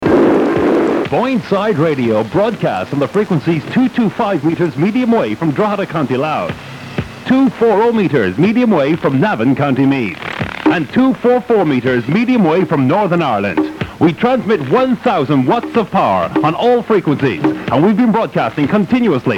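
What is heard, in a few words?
frequency promo, radio; 1983; irish; boyneside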